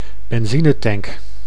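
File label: "benzinetank", Dutch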